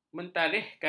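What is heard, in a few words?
"mentarikhkan", Malay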